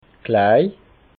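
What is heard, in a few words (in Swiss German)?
"klein", Alsatian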